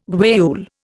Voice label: "guayule", Indian